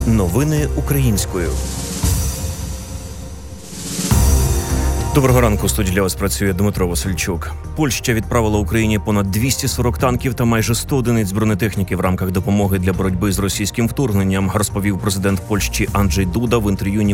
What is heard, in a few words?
Ukrainian News